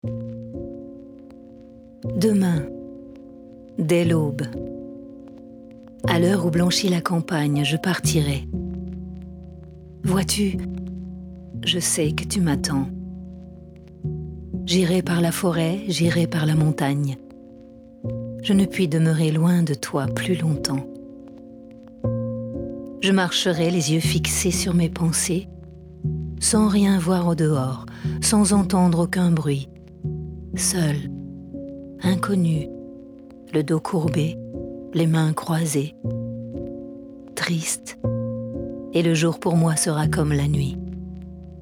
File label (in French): Poesie VOIX OFF maman